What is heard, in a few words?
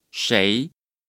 "誰", Chinese